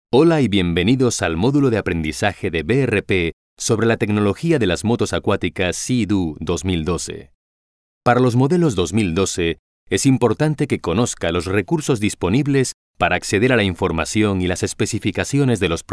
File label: spanish latin american e-learning